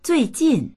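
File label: "zui4jin4", Mandarin Chinese; zuìjìn; 最近; 最近、近頃; HSK３級必修単語集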